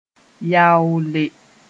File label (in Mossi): "yaoole", moore